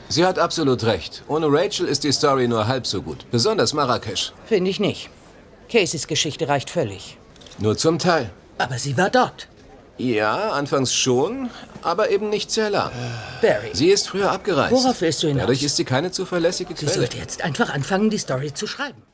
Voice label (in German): Synchron, maenner; Inventing Anna